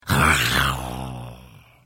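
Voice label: Hello, Khajiit female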